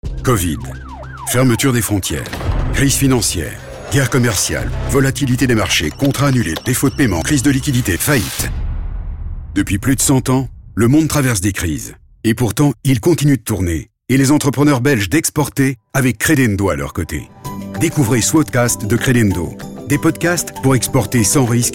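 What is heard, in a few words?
advert low dynamic strength; credendo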